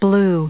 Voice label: "blow", en us